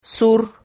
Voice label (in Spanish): "zur", Silabas